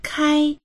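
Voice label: "kai1", Chinese; 开; 開く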